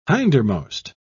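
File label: "hindermost", British English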